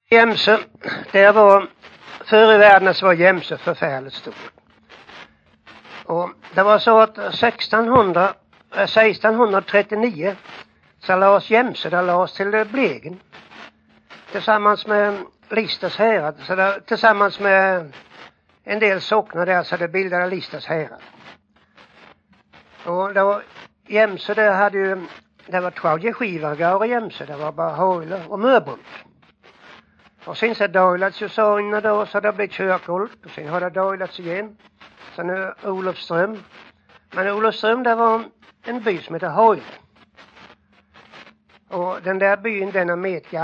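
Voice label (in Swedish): Jämshög Blekinge, svenska sagor dialekter; Folkminnen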